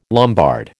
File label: "Lombard", American